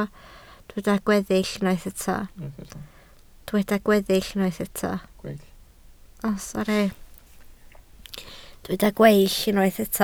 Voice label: "gweill", Welsh